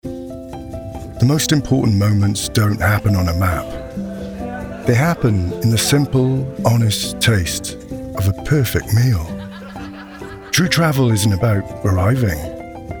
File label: Commercial, Experiential Travel Company / Casual, Grounded, Worldly, Conversational